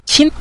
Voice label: "qin", Mandarin